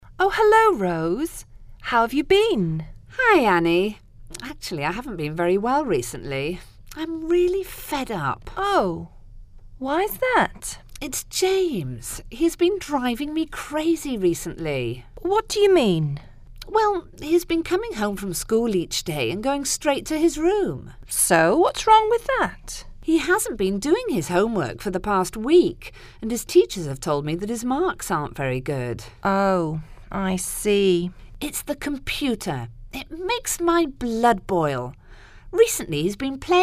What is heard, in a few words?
Telephone conversation